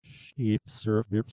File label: reverse speech sheep serve here